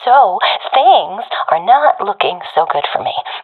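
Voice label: scared, professional female; vo; Counter Strike Model Pack; CS:GO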